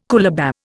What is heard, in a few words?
"coolabah", Indian